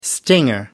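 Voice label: "stinger", English, British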